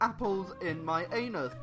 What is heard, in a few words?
french